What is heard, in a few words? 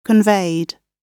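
"conveyed", British English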